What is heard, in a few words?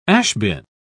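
"ash-bin", English, British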